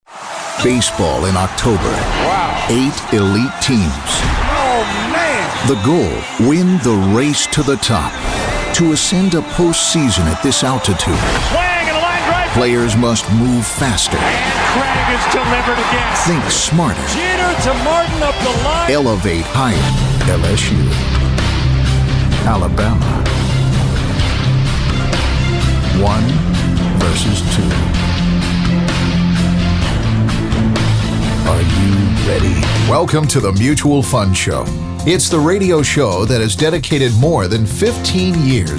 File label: promo